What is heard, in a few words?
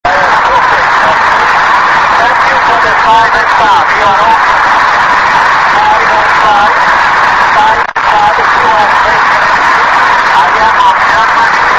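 amateur radio contact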